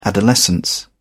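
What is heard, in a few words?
"adolescence", British English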